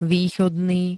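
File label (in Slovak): "východný", Slovak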